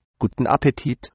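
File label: bon appetit, German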